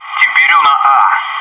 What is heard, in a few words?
"guarding a", bot